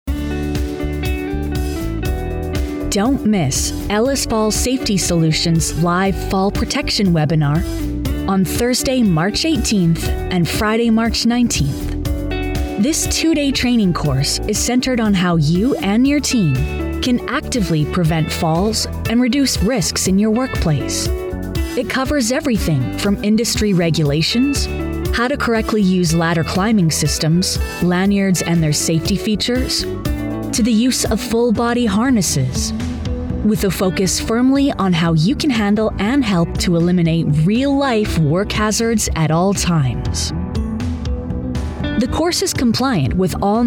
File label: Commercial; Ellis Fall Safety Solutions